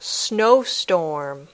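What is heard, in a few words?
"snowstorm", English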